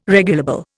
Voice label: "regulable", Indian